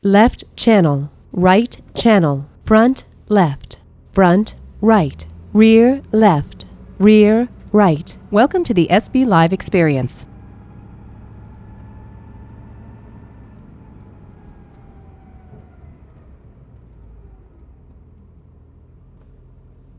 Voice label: female car noise